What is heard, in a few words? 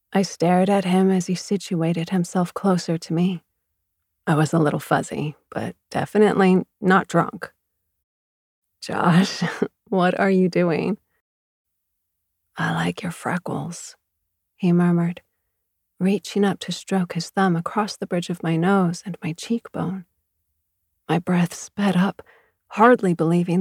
Steamy Romance First Person